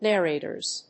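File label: "narrators", English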